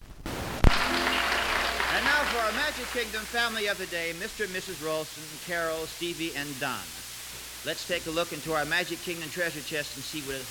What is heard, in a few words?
Commercial Mohawk Carpet